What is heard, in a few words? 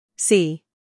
"c", us